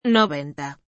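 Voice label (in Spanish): "noventa" Spanish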